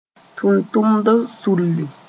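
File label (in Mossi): "tʋntʋmdb sulli", Mooré; trade union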